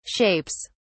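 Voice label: "shapes", British English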